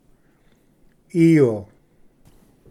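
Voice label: "eel", English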